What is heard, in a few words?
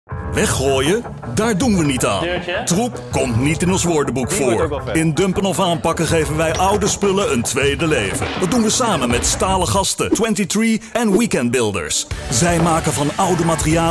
hard sell; Hornbach